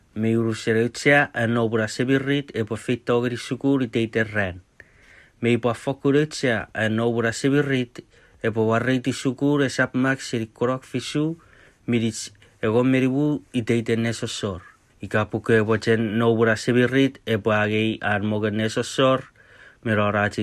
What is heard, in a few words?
Chuukese